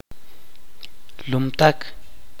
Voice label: "sucio", chuj

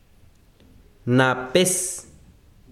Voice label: "cielo", besiro, chiquitano

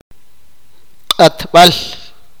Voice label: "cuchillo", sipakapense

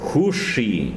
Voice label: "espalda", tsesungun

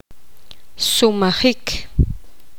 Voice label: poqomam lanzar

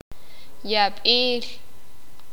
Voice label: Peste enfermedad, sakapulteko

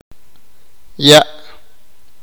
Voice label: "agua", sipakapense

